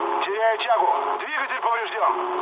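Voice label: Russian damaged engine mood low, speech; War Thunder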